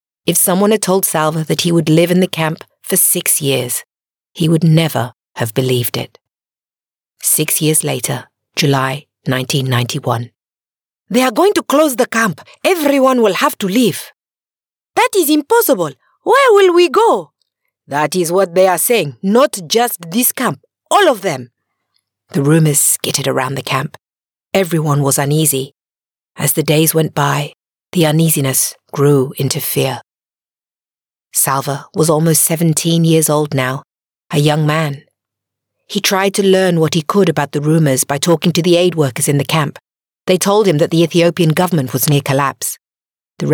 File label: UK English; The Long Walk To Water